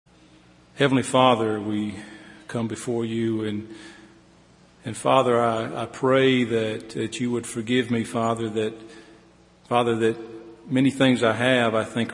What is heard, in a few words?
Contribution Prayer; Communion Leader; Meadowbrook Church of Christ; Easter